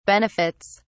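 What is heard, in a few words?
"benefits", English, British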